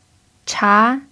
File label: "cha2", chinese